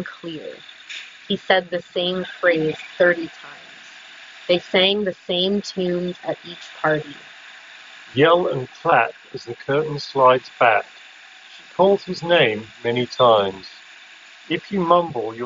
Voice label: Sennheiser Momentum True Wireless 3 Street microphone sample